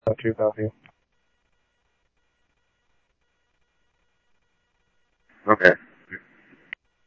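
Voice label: police department, scanner audio trunk; SCTSDLE PD - PD1 ScottsdalePolice; H-Scottsdale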